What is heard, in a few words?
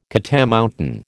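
"catamountain", American English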